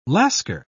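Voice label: "lascar", British English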